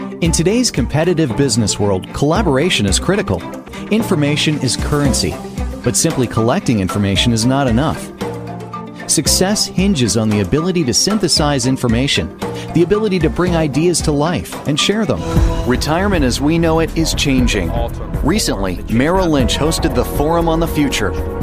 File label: American English Voiceover Sample